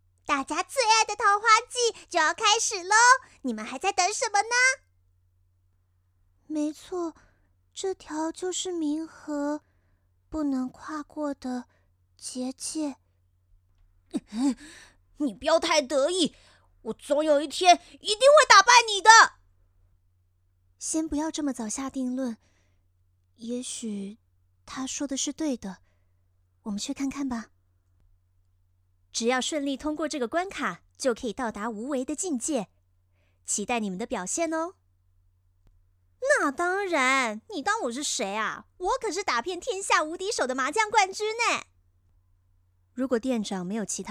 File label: chinese mandarin video games